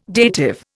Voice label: "dative", Indian

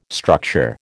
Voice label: "structure", American

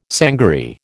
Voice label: "sangaree", American

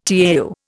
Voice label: "tau", Indian

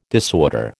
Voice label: "disorder", American

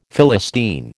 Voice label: "Philistine", American

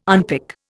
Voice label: "unpick", Indian

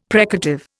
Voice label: "precative", Indian; Dictionary

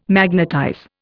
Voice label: "magnetize", Indian

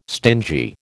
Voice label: "stingy", American

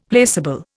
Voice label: "placable", Indian; Dictionary

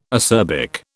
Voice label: "acerbic", British

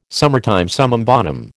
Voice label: "summertime summum bonum", American

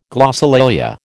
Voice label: "glossolalia", American

